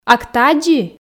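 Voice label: "ак тадзи", Komi, female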